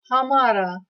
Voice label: "hamara" Hindi